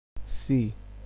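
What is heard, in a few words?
"see", Nigerian Pidgin, male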